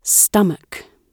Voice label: "stomach", GB

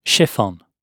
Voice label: "chiffon", GB

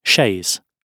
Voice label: "chaise", GB

